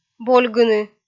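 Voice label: "больгыны", Komi, female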